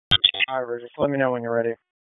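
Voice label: FIRE Operations, p25; FIRE OPS 3; wake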